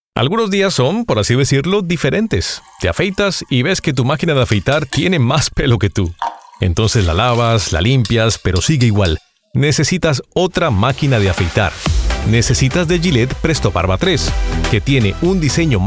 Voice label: conversational